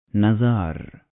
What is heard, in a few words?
"Nazar", Arabic